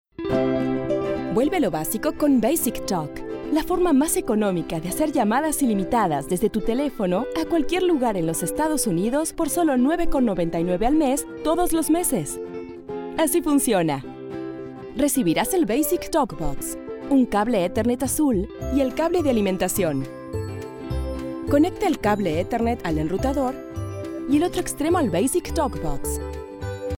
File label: Basic Talk Box